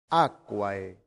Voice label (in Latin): "aquae", Latin; santillana